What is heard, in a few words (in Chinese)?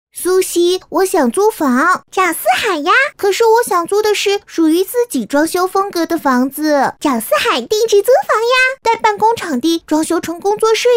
女 童声 女童; 佩奇